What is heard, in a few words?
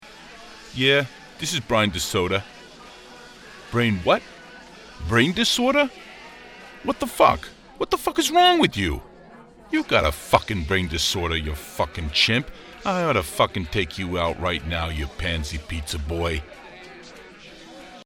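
Gangster Ny NJ English USA Male Gaming; Urban; Ny Nj Gangster Punk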